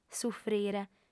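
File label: "sufrire", female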